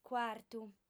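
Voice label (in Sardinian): "cuartu", female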